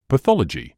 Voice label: "pathology", English

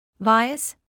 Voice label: "bias", English

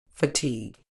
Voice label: "fatigue", uk

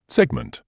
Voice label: "segment", English

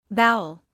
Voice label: "bowel", medical english

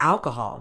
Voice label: "alcohol", Medical English

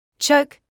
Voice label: "choke", medical english

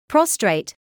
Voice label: "prostrate", medical English

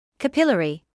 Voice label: "capillary", English

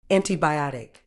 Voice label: "antibiotic", Medical English

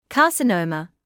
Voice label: "carcinoma", English

